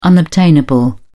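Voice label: "unobtainable", British English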